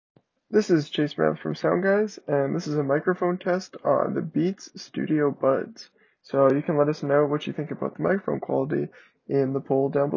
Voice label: Beats Studio Buds mic test